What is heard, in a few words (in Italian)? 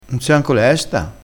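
"lesto", vocabolario dialettale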